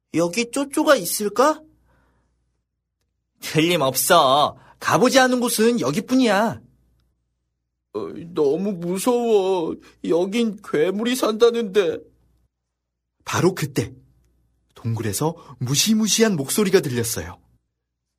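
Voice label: Korean male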